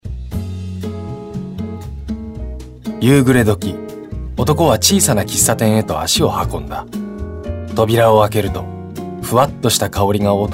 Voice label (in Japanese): モノローグ 大人